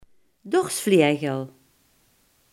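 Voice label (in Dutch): "dorsvlegel" Limburgish dialect word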